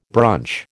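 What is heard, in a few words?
"brunch", American English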